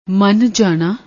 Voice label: "mann jaana", Punjabi

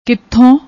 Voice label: "kitho", Punjabi; ਕਿੱਥੋਂ

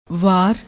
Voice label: "war", Punjabi; ਵਾਰ

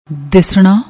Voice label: "disna", Punjabi

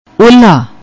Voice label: "ohhalla", Punjabi; ਓਹਲਾ

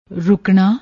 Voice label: "rukna", Punjabi

